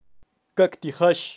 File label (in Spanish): "kaqtijax", kaqchikel, pronunciacion de animales